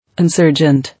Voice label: "Insurgent", English

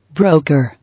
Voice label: "Broker", English

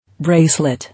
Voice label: "Bracelet", English

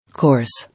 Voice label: "Course" English

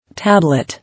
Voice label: "Tablet", English

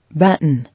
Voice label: "Baton", English

